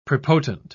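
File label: "prepotent", British English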